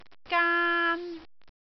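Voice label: "gaan1", Cantonese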